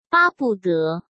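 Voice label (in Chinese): "巴不得" Mandarin Chinese; chinese-flashcards; hsk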